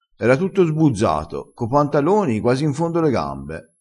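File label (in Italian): "sbuzzato", dialetto crespinese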